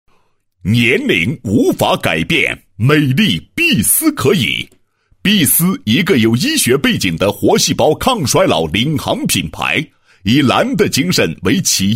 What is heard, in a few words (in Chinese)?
男 品牌广告 企业产品宣传; 碧丝